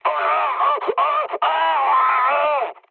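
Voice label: French damaged pilot mood med; War Thunder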